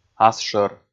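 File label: "асшӧр", Komi, male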